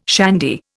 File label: "shandy", Indian